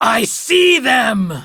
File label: Enemy Spotted Female